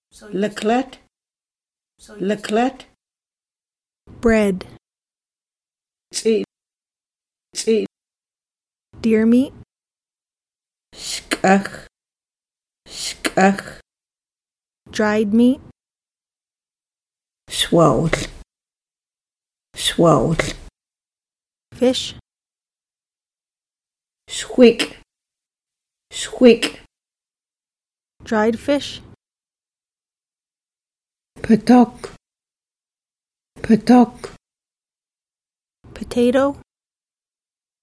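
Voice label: Food Word List, secwepemc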